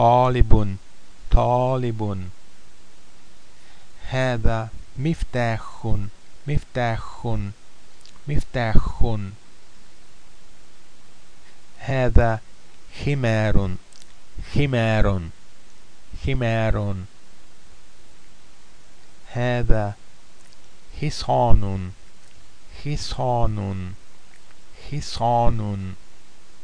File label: arabic